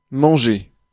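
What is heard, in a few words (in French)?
"manger", French; Mots francais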